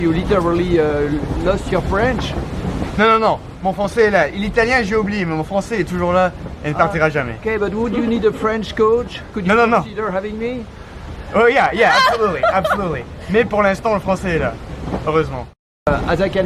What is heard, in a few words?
code switching multilingual; Universal-3 Pro